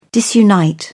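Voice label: "disunite", British English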